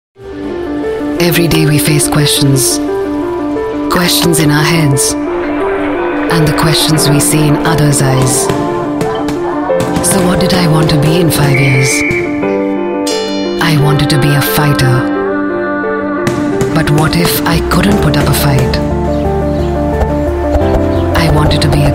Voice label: Commercial

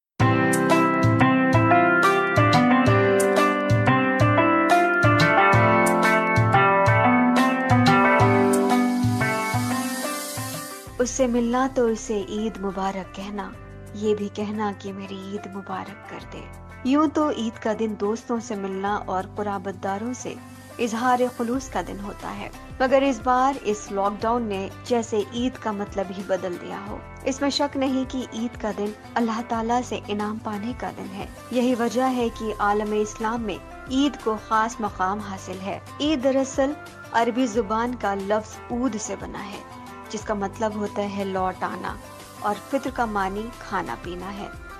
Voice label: Documentary

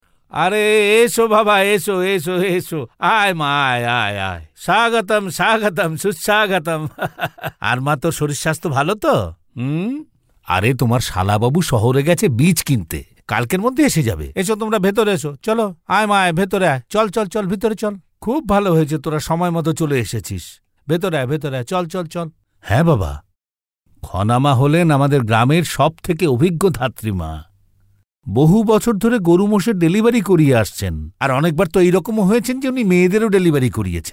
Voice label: Old Male Sample

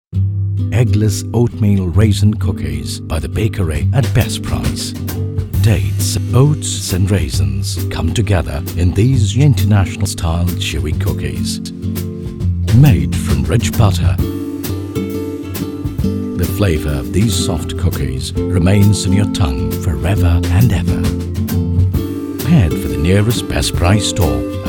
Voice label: Commercial

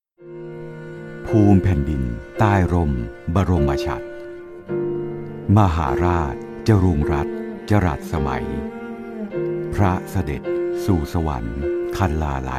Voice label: Narration

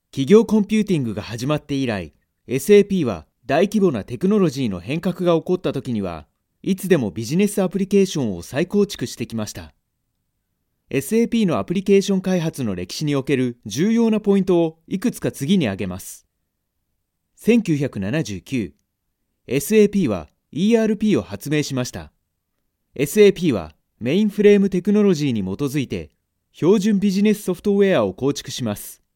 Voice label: Narration

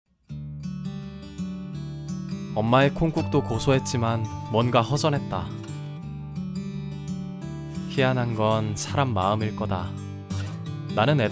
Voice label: Audiobook

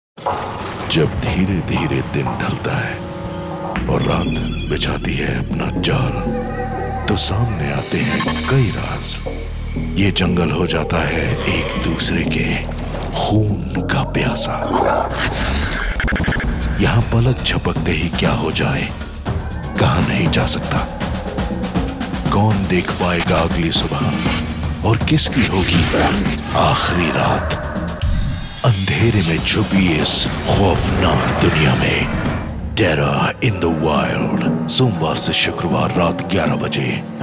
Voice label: Documentary

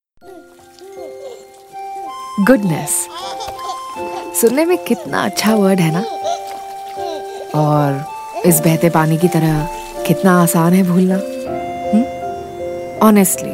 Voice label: Hindi Commercial

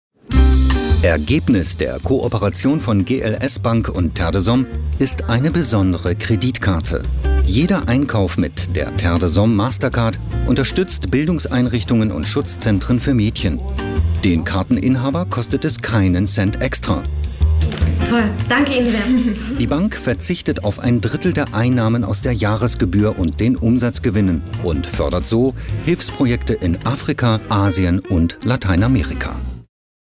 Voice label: Commercial

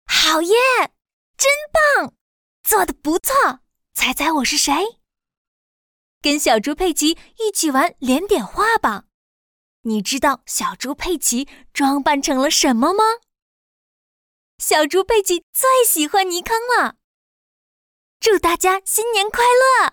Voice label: Animation

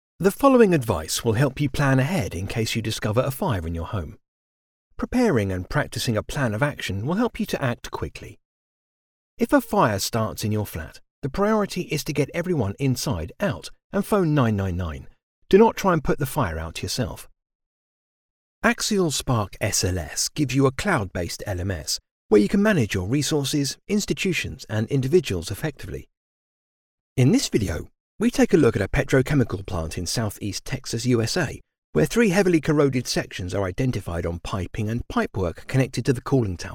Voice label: E-Learning

